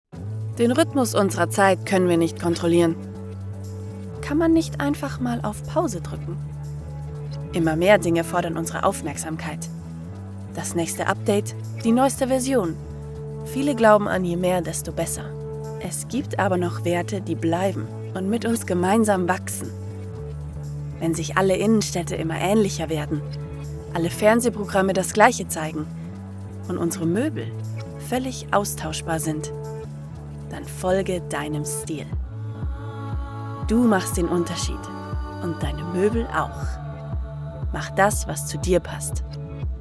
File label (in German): Imagefilm Moebel